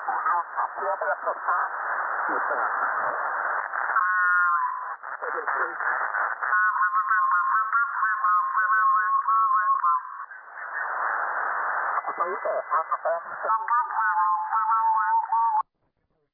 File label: CQWW SSB USB 14MHz